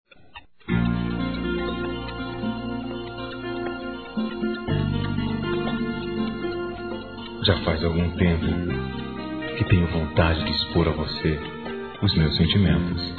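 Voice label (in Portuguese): CONQUISTA, masculino; Telemensagens; Conquistas Cantadas paqueras; Telemensagens 2017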